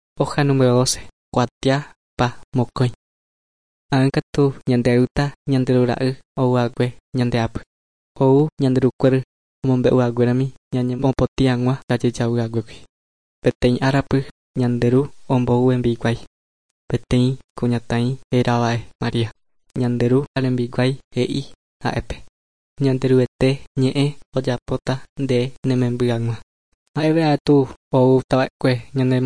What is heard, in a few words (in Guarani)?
guarani mbya; good news and words of life; bible